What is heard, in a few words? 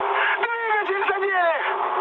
damaged engine, mood high, speech ru; War Thunder